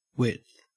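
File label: "width", Australian English; pronunciations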